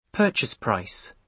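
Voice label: "purchase price" English, British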